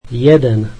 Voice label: slovak one

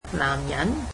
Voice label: "man", Cantonese

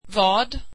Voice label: what, swedish

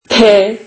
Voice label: turkish alphabet p

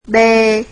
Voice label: vietnamese alphabet b

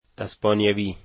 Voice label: "Spanish", dari

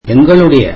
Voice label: tamil our

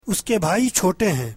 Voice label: urdu his brothers are young

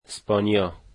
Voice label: "Spain", dari

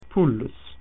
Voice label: "chicken", Latin

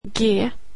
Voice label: "g", swedish alphabet

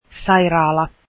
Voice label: finnish hospital

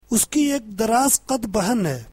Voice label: urdu she has a tall sister

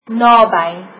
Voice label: "near", afrikaans

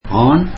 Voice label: tamil man

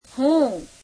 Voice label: "I", gujarati; હું; heum

